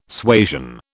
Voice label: "suasion", British